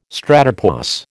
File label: "stratopause", American